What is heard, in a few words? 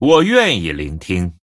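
Male, Chinese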